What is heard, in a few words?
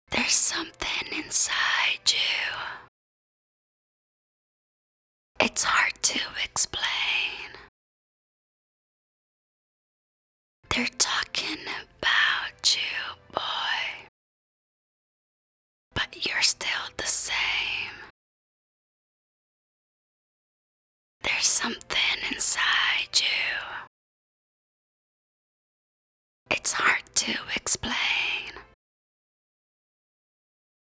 Whisper